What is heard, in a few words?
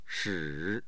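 "shi3", Mandarin Chinese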